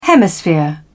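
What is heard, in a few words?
"hemisphere", British English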